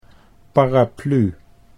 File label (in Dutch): "paraplu", Dutch